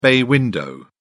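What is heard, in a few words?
"bay window", English, British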